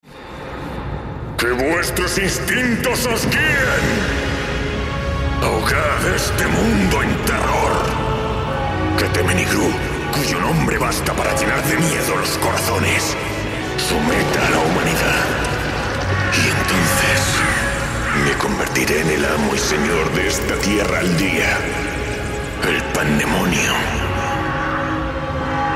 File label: EU Male Spanish Voice Dubbing demonio Laugh evil diabolic; Devilmaycry Arkham